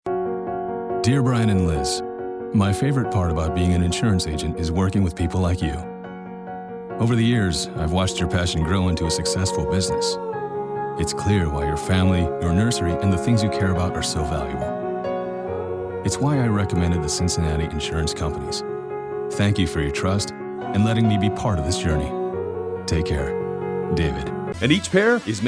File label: comm VO